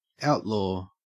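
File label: "outlaw", Australian English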